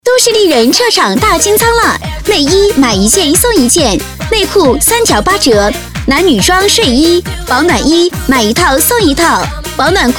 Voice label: 女-促销; 都市丽人

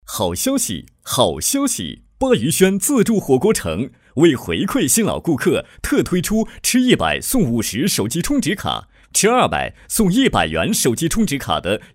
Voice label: 男 促销; 火锅城促销

